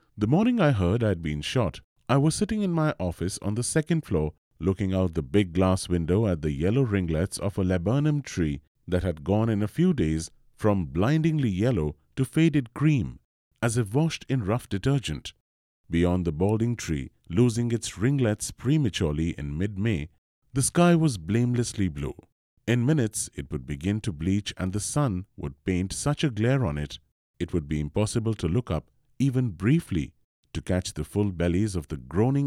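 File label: English M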